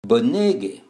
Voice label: "bonege", Esperanto